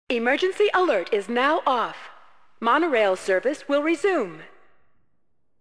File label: Announcer Lines; Sonic Adventure